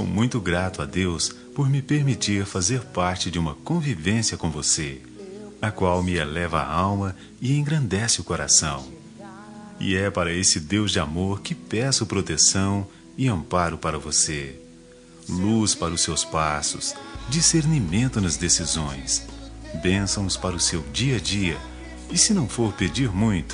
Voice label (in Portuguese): neutra gospel, Aniversário Pessoa Especial Gospel – Voz Masculina